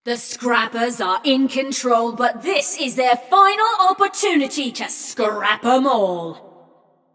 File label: Scrappers Lead, Announcer; Flux; Scraprun